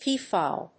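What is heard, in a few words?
"peafowl", English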